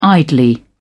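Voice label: "idly", English, British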